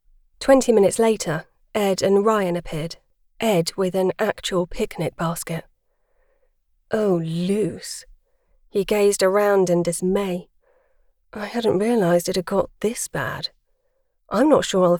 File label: comedy multicharacter banter